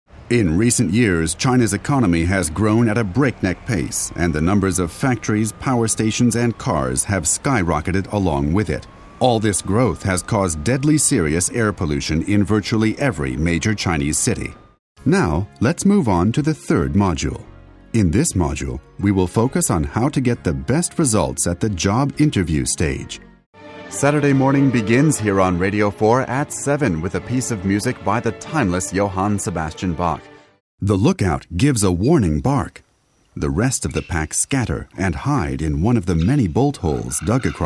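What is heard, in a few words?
American, narration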